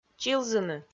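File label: "чилзыны", Komi, female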